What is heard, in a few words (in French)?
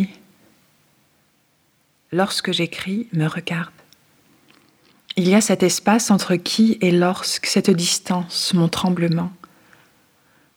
PEUPLIER VOIX SEUL